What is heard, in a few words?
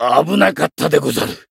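win barely, Japanese; cyrus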